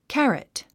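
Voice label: "carrot", English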